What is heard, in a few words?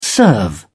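"serve", British English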